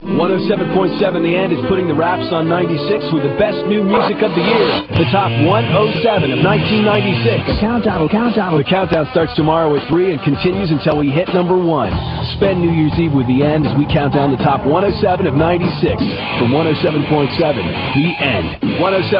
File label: countdowns